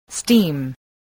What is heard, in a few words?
"steam", voice spelling